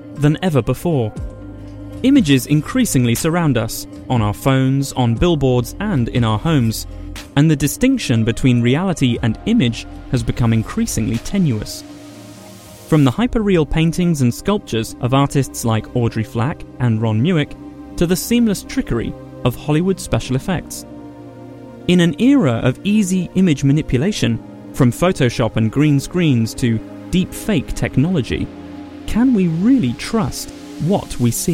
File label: TV Documentary